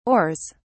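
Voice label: "oars", English, British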